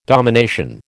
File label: "domination", American